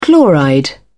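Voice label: "chloride", English, British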